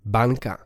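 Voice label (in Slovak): "banka", Slovak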